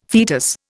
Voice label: "fetus", Indian